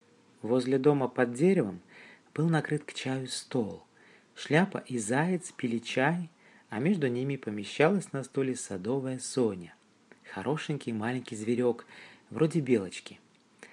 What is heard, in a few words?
Russian